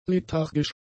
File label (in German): "lethargisch", German; adjectives